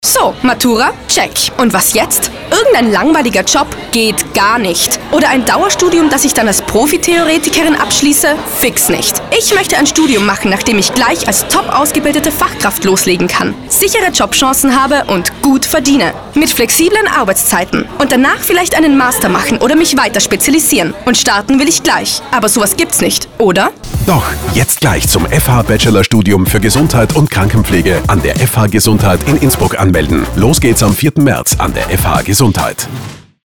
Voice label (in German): Radiospot; fh Gesundheitsberufe